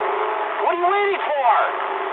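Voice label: English attack position mood high; War Thunder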